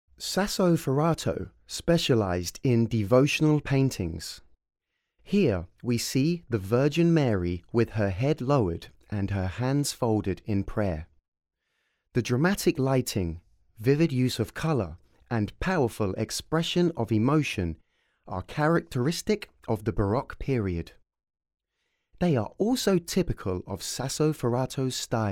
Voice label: English, audio guide